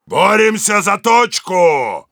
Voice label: fight on cap ru; Heavy

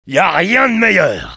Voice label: Engineer positive vocalization fr

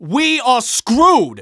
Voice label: Scout negative vocalization

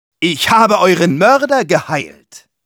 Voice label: Medic taunts, de

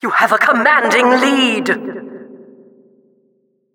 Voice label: Announcer race general

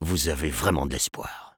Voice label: sniper taunts, fr

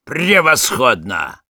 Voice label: sniper positive vocalization, ru

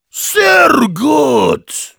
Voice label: positive vocalization, de; Heavy

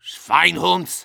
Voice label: jeers; Medic

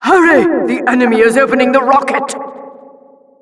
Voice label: rocket warnings, announcer

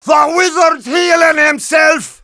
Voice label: healing; Demoman

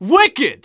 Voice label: Scout cheers

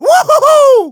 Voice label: scout cheers, de; "Wohohou!"

